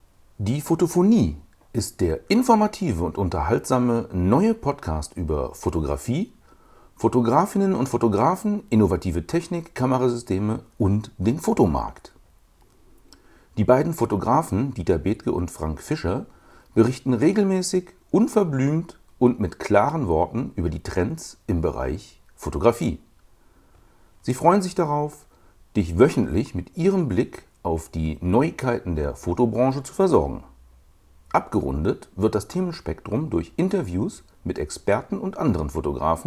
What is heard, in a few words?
Audiotechnica ATR3350; mikrofonierung; fotophonie 046